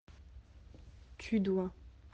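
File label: "devoir", French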